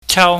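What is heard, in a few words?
"chau", Cantonese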